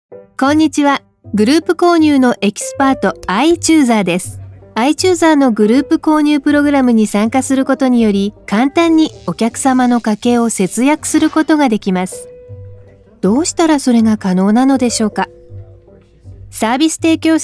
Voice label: Corporate Video; iChoosr